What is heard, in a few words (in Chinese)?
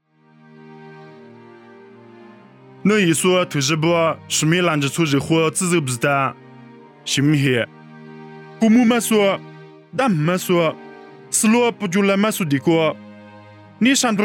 凉山彝语; 地中海士每拿